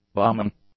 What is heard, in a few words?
"bombing", English; nouns